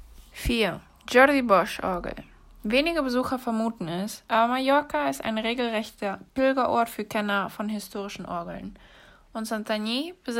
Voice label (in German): Orgel DE